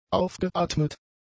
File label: "aufgeatmet", German; adjectives